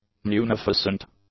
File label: "munificent", English; adjectives